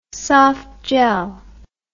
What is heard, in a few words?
"softgel", English